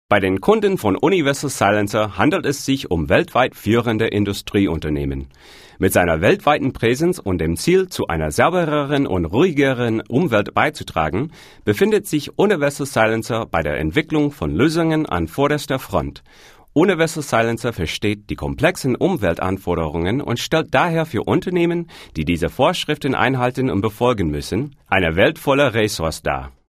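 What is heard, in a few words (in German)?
Deutsch, male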